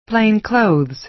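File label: "plain-clothes", American English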